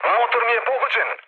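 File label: Serbian damaged engine mood low, speech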